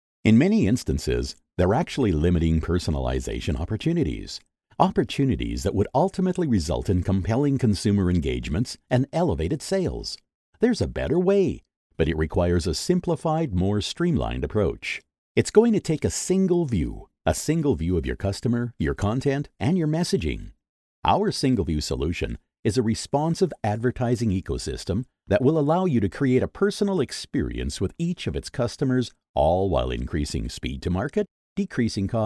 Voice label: english american promos